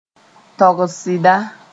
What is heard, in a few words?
"togs sɩda", Mooré